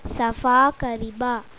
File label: clean, oriya